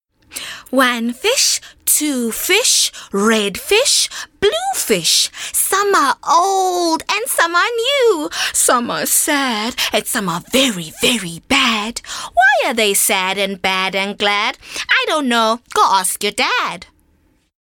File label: Character Little Girl